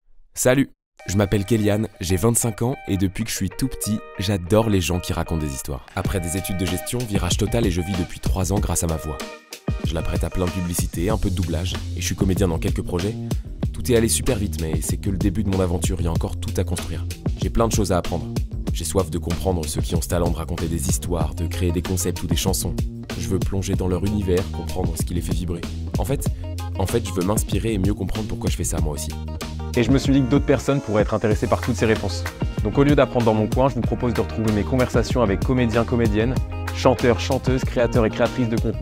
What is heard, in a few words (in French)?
MICROPHONE PODCAST